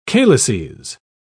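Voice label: "calyces", British English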